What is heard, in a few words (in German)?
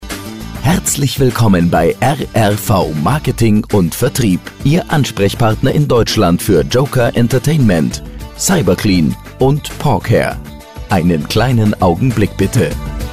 Warteschleife; kurz